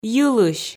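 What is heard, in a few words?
"йылысь", Komi, female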